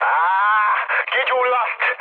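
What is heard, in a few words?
on fire, mood med, Hungarian; speech; War Thunder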